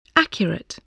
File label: "accurate", English voice